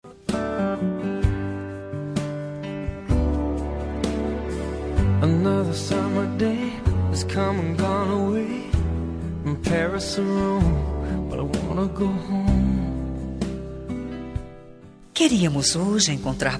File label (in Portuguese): esposa fem, Dia dos Pais Marido – Voz Feminina